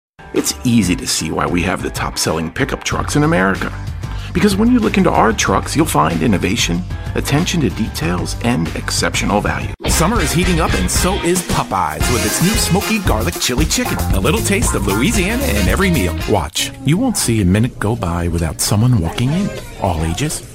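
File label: Commercial voice-over, Australian English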